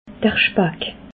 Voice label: "spack", alsace

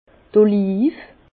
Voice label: "olive", Bas Rhin

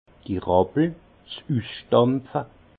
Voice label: "rappel", alsace; fouloir; vin

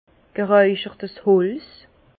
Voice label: "gereicherts holz", Bas Rhin; vin; bois fumé

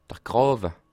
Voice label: "grawe", alsace

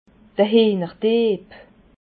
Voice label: "hienerdieb", Alsace

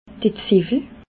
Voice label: "ziwwel", alsace

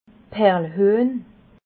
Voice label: "perlhuehn", Alsace

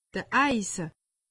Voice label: "eise", alsace; medecine

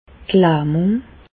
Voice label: "lahmung", Bas Rhin; medecine; paralysie

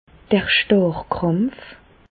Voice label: "starrkrampf", Bas Rhin; medecine; tétanos

